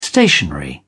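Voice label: "stationery", British English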